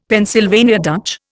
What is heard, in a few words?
"Pennsylvania Dutch", Indian; Dictionary